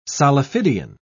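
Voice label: "solifidian", English, British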